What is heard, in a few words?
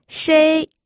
"shei1", pinyin